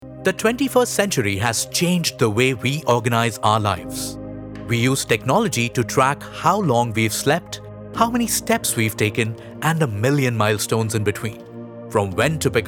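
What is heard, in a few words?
Hindi accented, female, English Corporate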